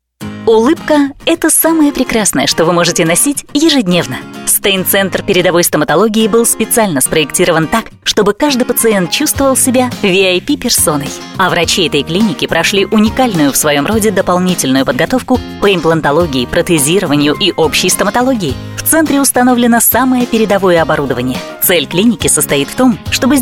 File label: russian voice over female narrator